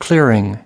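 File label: "clearing", US English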